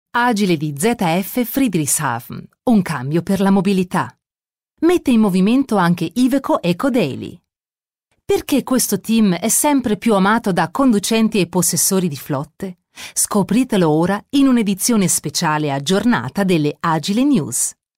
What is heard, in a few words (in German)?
Kommentar Neutral, IT